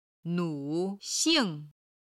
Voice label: "nu3xing4", Chinese

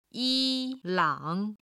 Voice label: "yi1 lang3", Chinese

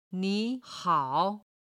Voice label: "ni3 hao3", chinese

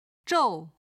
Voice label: "zhou4", Chinese

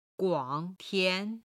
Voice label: "guang tian", chinese

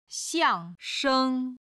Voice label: "xiang4 sheng1", Chinese

